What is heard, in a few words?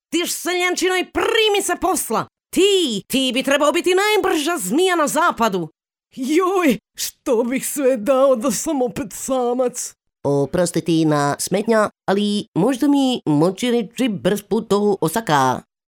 female croatian voice over character